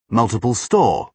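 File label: "multiple store", British English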